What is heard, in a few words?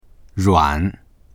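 "ruan3", Chinese; 软; 柔らかい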